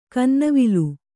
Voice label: "ಕನ್ನವಿಲು", Kannada